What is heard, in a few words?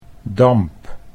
"damp", Dutch